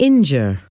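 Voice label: "injure", English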